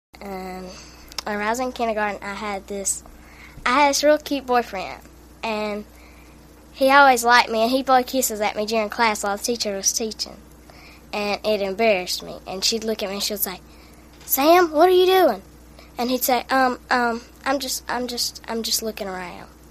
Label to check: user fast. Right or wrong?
Wrong